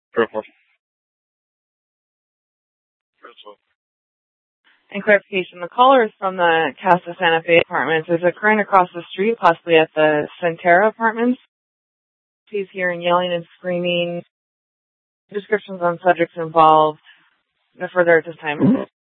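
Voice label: police dispatch, Scottsdale PD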